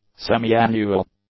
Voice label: "semi-annual", English; adjectives

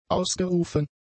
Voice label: "ausgerufen", German; adjectives

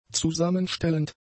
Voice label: "zusammenstellend", German; adjectives

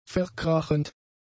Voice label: "verkrachend", German; adjectives

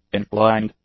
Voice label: "inclined", English; adjectives

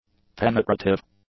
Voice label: "penetrative", English; adjectives

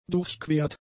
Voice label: "durchquert", german; adjectives

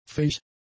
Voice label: "fähig", German; adjectives

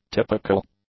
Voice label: "typical", English; adjectives

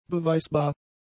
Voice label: "beweisbar", German; adjectives

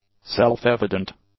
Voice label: "self-evident", English; adjectives

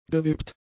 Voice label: "gewippt", German; adjectives

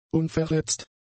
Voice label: "unverletzt", German; adjectives

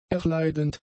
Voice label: "erleidend", German; adjectives

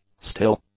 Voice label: "still", English; adjectives

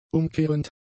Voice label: "umkehrend", German; adjectives

